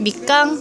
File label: tangerine, jeju